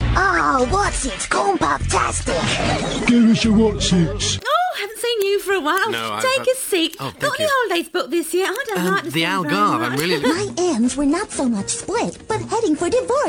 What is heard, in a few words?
Animation